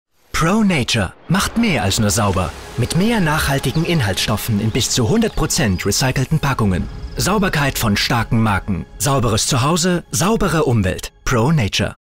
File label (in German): Werbung freundlich; Pro-Nature